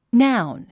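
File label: "noun", English word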